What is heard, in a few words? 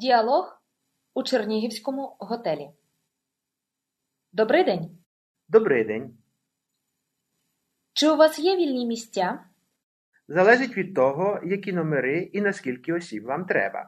Dialogue, Ukrainian; beginnersukrainian